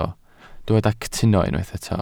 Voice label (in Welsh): "cytuno", Welsh